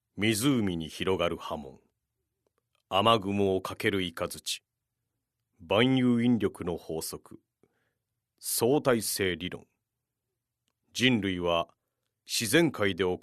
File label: narration